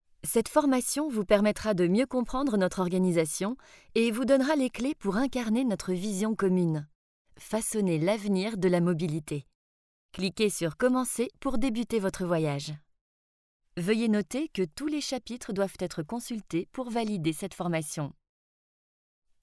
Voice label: E Learning, Voix Off